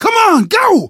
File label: emphatic go, survivor voice, manager; source engine; left 4 dead 2